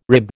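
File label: "rib", American English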